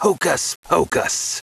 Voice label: Young Mage